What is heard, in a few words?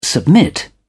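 "submit", British English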